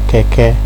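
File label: "keke", Nigerian Pidgin, male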